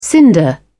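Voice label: "cinder", British English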